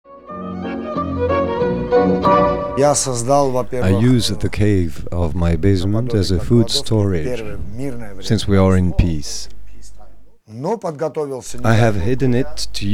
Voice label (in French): anglais; karabarkh